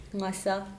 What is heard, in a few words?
"lips", Mokilese